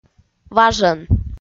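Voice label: "важӧн", Komi-Zyrian, female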